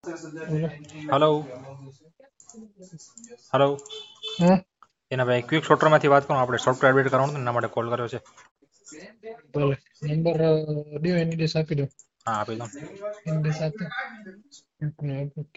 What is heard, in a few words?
Call recording